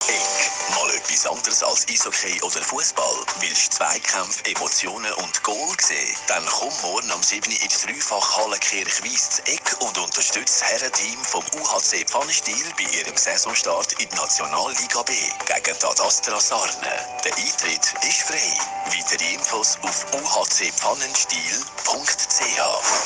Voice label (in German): Werbespot; RadioZuerisee